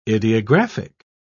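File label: "ideographic", British English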